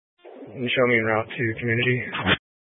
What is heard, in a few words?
Sheriff Dispatch, scanner